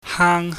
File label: "haang", chinese